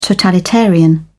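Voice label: "totalitarian", English, British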